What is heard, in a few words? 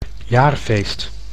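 "jaarfeest", Dutch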